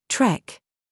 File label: DRESS TRAP, minimal pairs